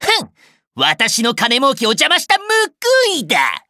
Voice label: victory jp